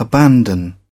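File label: "abandon", British English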